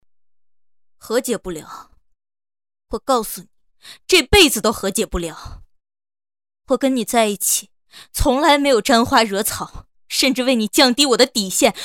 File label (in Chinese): 情绪台词配音